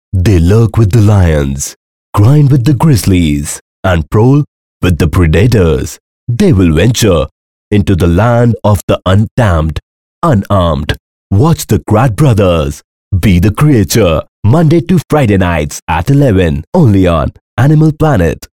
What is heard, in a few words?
English, male voice